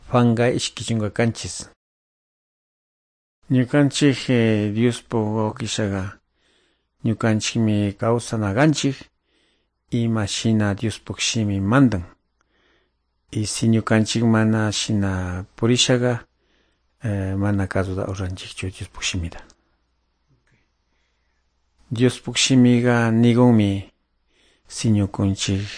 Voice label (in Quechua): good news quichua de calderon